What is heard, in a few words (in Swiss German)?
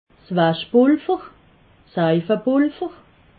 "waschpulver", alsace